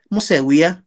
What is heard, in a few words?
"moseuiaj", Náhuatl; se sientan; they sit